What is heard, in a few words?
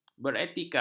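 "beretika", Malay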